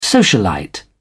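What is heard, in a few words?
"socialite", British English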